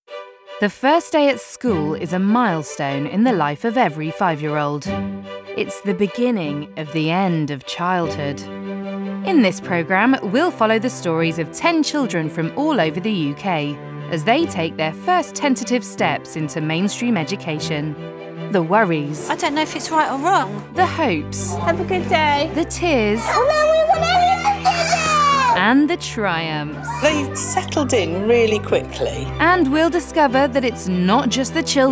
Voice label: narr

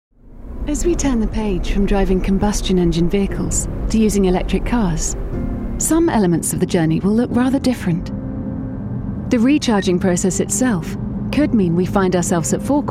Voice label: corporate reel

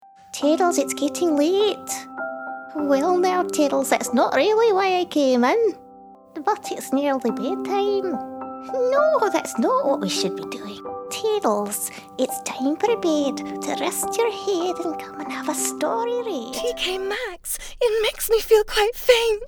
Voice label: animation voice reel

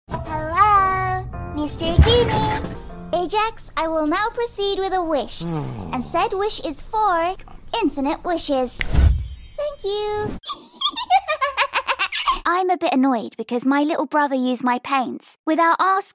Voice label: animation reel

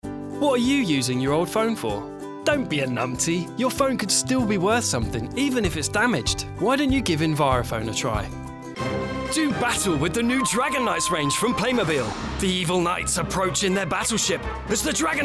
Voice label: commercial voicereel

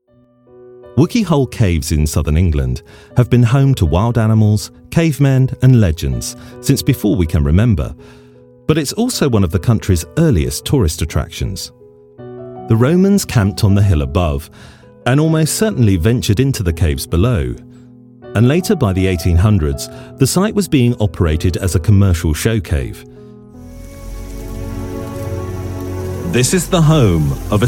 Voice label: documetary narrations

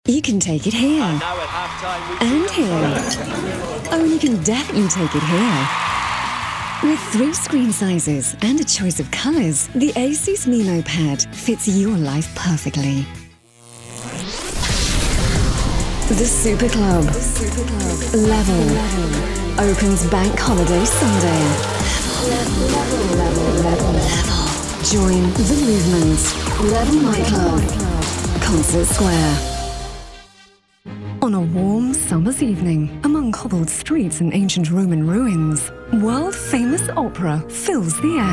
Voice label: commercial showreel

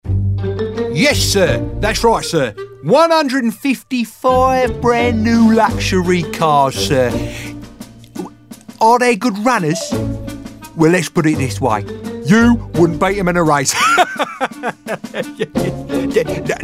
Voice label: characters mix

